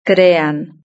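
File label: "crean", Spanish; verb-conjugator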